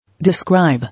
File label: "Describe", English